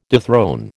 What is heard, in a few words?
"dethrone", American